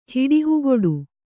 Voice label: "ಹಿಡಿಹುಗೊಡು", Kannada